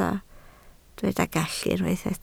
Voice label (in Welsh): "gallu" Welsh